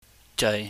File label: "jeui", chinese